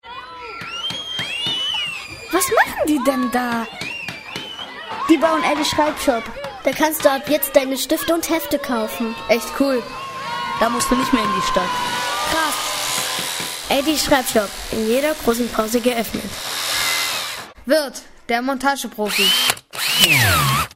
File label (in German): Werbespot Schreibshop; Werbespot Edis Schreibshop; SchülerRadioTag 2010 an der Hochschule der Medien